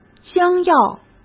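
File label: "将要", Mandarin Chinese; hsk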